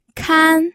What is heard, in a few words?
"kan1", pinyin